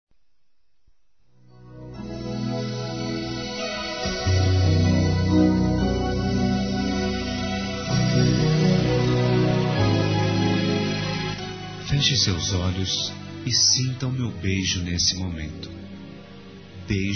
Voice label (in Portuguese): ANIV. PAQUERA (EU TE AMO) M; Aniversários; ANIVERSARIO romantico; masc